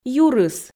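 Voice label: "юрыс", Komi, female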